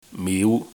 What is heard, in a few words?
"miu5", cantonese